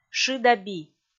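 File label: "шы да би", Komi, female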